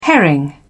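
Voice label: "herring", British English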